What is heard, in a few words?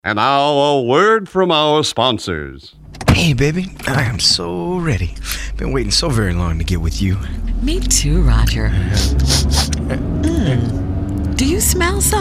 Commercial; Assterine Commercial Snippet